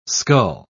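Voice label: "scull", English, British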